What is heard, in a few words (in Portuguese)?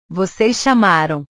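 "vocês chamaram", Portuguese; portugues/verbos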